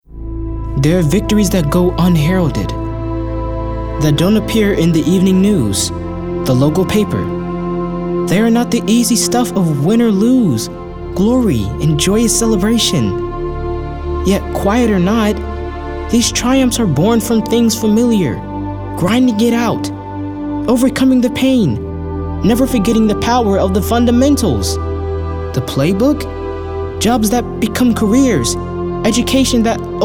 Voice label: Inspirational